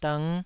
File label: "dng5", taiwanese syllable